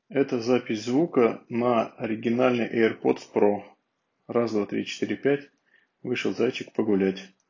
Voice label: airpods pro mic